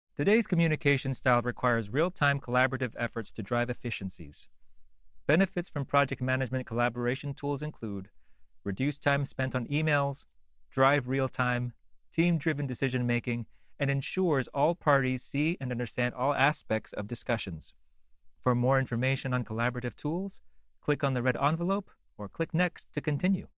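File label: voice over Filipino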